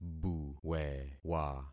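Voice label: "buwewa", concatenated syllables; Affixing experiment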